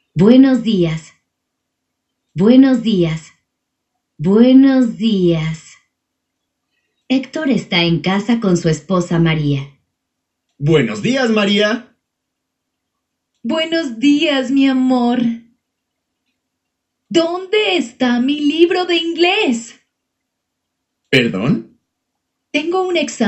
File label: Spanish Coffee; Spanish Stories